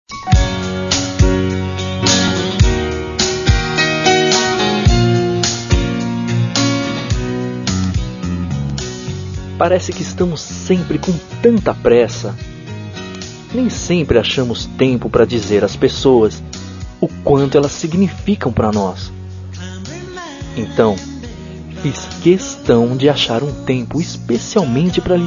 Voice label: Telemensagem Romântica - Voz Masculina - Mensagem de Amor

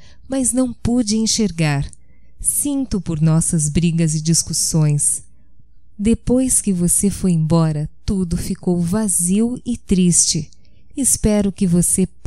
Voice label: Perdão Feminino, Telemensagens, Só Voz; Telemensagens 2017

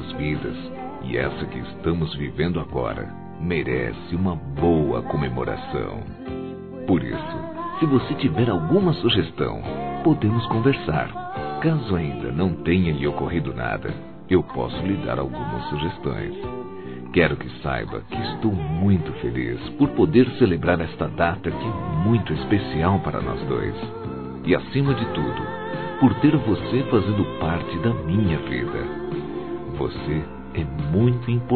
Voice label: Aniversário Namoro Masculino

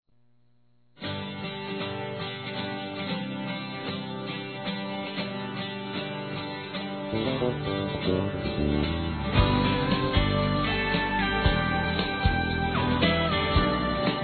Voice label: Aniversário Sertanejo Esposa Masculino; ANIVERSARIO romantico

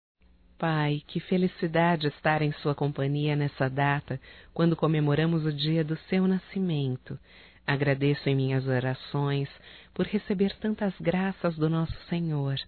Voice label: Aniversário Pai Evangélico Feminino; Aniversarios; Telemensagens; Telemensagens 2017

